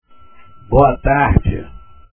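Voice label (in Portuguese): "boa tarde", Portuguese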